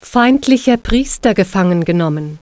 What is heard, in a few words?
enemy Priest Captured, german